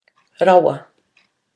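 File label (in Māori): "rawa", Māori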